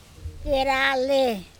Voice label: "Kerale" the middle woodlog of the boat, andamanese